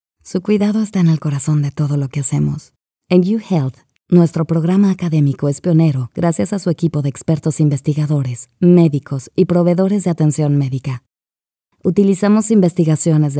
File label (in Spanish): Corporativo Emocional Es